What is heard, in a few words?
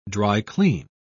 "dry-clean", pronounce